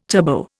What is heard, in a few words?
"turbo", Indian